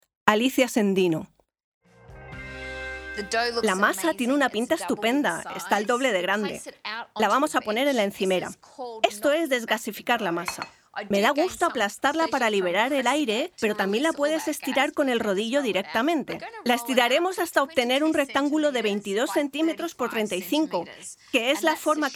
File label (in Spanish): Documental